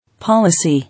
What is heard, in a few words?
"Policy", English